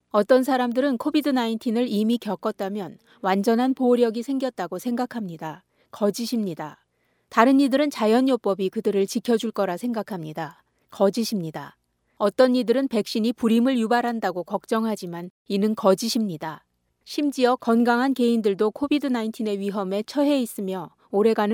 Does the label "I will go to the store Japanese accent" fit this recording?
No